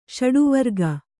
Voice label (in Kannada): "ಷಡುವರ್ಗ", Kannada